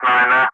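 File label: Speech, English, Digits, 3 continue; Ka-50; Wingman; 9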